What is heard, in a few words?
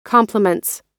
"complements", us